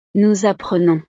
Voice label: "nous apprenons", frances

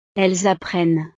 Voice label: "elles apprennent", frances

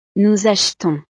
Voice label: "nous achetons", frances

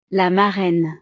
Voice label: "la marraine", frances; familia

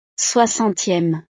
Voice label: "soixantieme", frances

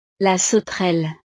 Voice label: "la sauterelle", frances

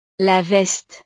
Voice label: "la veste", frances; ropa

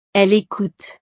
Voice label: "elle ecoute", frances

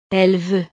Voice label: "elle veut", frances

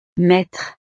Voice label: "mettre", frances

